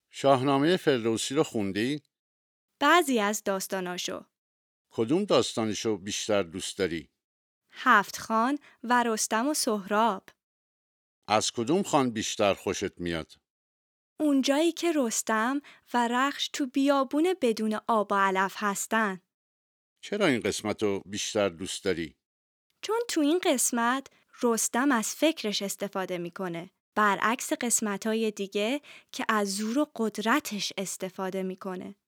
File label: Dialogue lesson Farsi